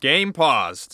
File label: Announcer Global Game Paused